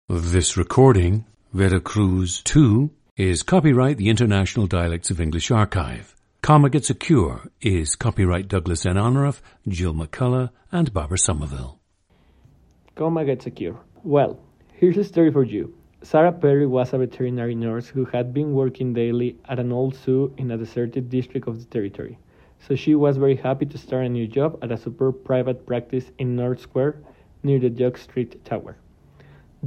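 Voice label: veracruz, International Dialects of English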